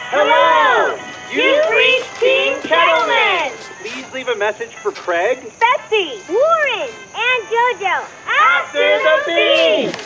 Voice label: voicemail; kettleman; better call saul